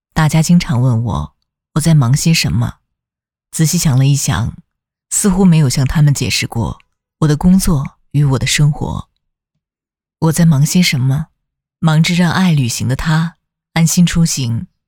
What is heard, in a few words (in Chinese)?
女, 走心, 颓, 旁白; 抖音